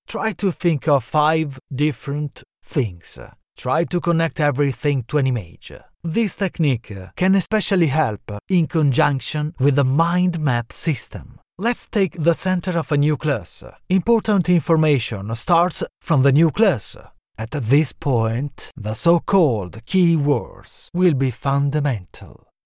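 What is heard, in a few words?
Italian Male English Corporate E-learning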